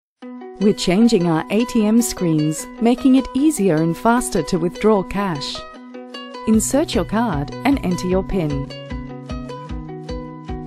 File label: Explainer